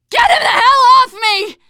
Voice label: tank pound, teen girl; player; survivor; voice

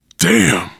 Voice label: reaction negative, voice, biker; Left 4 Dead 2; left4dead2 dlc3; survivor

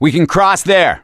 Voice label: misc directional, voice; gambler

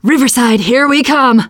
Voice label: finale truck end, teengirl, voice

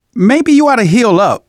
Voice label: player suggest health, survivor voice manager; Left 4 Dead 2; left4dead2 dlc3

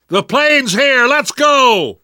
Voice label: arrive plane initial, survivor voice; Left 4 Dead 2; left4dead2 dlc3

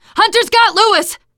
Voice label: hunter pounced, teen girl

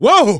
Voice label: fall short, survivor voice; manager; Left 4 Dead 2; left4dead2 dlc2

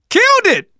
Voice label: kill confirmation, manager, survivor, voice; Left 4 Dead 2; left4dead2 dlc3

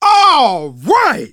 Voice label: reaction positive, manager, survivor, voice; Left 4 Dead 2; left4dead2 dlc2

